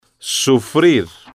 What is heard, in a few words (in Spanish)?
"sufrir", Spanish